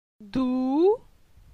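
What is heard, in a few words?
"Dou", French; phonetique; la syllabe ou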